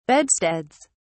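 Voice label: "bedsteads", English, British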